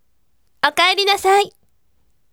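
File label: voice "welcome home" Japanese, bright; okaerinasai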